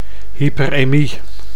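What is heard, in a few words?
"hyperemie", Dutch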